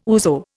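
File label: "ouzo", Indian English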